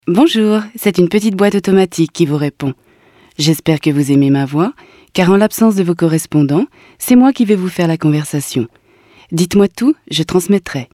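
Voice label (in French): repondeur, voix-off; casting de voix-off; taurus-studio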